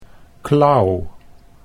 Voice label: "klauw", Dutch